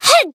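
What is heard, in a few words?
Voice damaged, Japanese; buria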